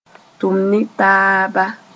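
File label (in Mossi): "tʋm ne taaba", Mooré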